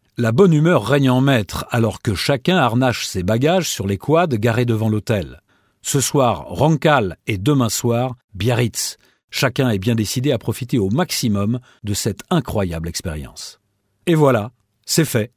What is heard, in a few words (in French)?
voix homme